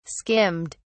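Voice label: "skimmed", British English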